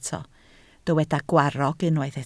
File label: "gwarrog", Welsh